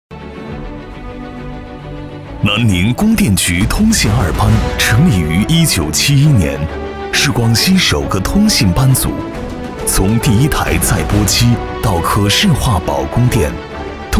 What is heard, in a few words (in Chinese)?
专题通信二班视频解说词